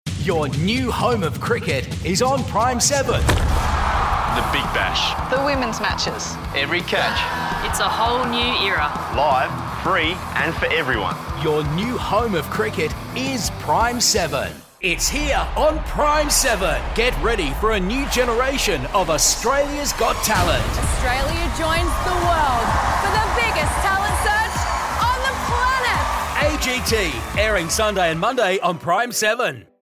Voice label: english australian promos